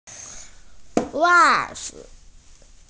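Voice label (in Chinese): "wa4zi5", Chinese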